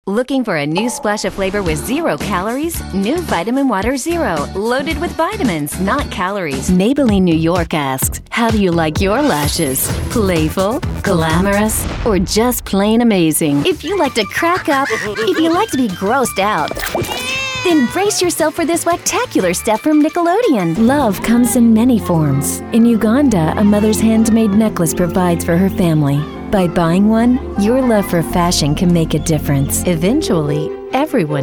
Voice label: F Commercial, voiceover womens